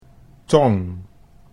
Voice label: "tong", Dutch

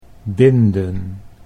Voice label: "binden", Dutch

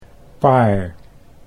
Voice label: "paar", Dutch

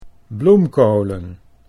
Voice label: "bloemkolen", Dutch

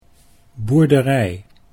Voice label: "boerderij", Dutch

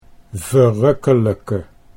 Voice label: "verrukkelijke", Dutch

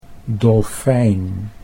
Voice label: "dolfijn", Dutch

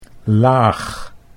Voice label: "laag", Dutch

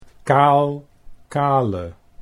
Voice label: "kaal kale", Dutch

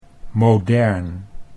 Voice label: "modern", Dutch

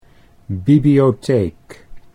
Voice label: "bibliotheek", Dutch

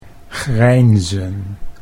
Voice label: "grijnzen", Dutch

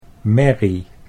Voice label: "merrie", Dutch

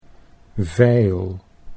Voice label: "veil", Dutch

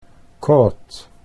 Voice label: "caught", Dutch English

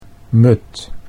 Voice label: "mud", Dutch

